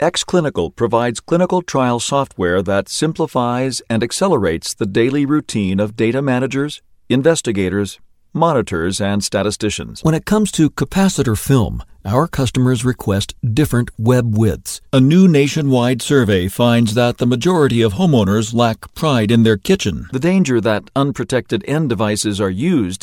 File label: NARRATION CORPORATE